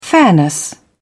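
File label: "fairness", British English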